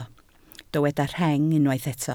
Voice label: "rheng", Welsh